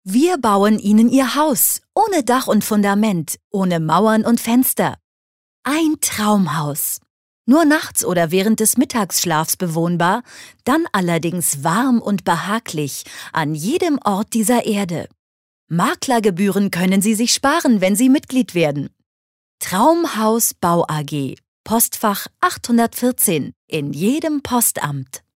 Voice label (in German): Werbung Freundlich